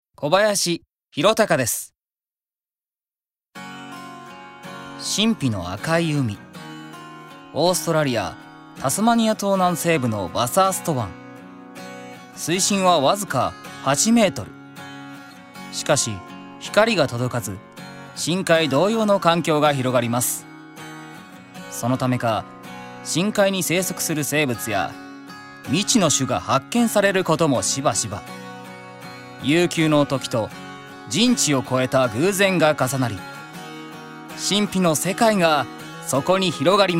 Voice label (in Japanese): ナレーション